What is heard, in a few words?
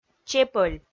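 "чепӧль", Komi, female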